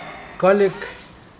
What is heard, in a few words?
huge, Mokilese